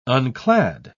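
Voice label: "unclad", British English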